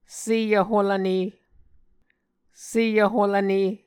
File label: "sevholvne", Muscogee